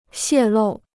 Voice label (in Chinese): "泄露", Chinese